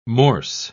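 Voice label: "morse", spoken word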